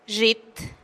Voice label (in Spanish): "zhit" gato, teotitlan